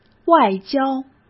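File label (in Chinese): "外交", Mandarin Chinese; chinese-flashcards; hsk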